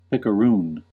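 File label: "picaroon", American English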